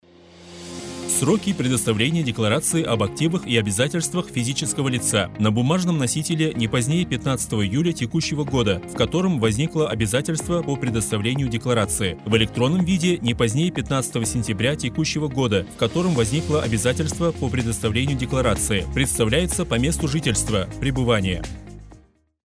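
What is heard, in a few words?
audio rolik, Russian; sroki predstavleniya deklaracii ob aktivah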